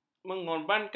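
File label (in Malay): "mengorbankan", Malay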